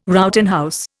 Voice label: "Rowton house", Indian